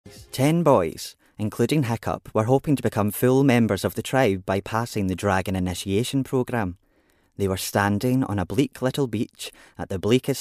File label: Narrative